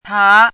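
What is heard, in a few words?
"pá", Chinese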